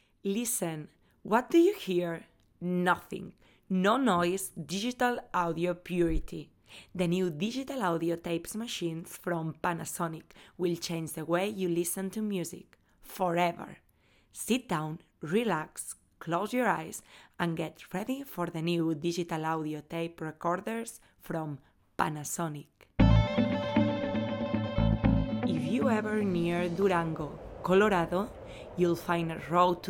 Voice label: english vo